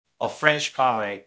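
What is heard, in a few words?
Voice Acting, Spanish, male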